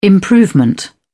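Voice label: "improvement", British English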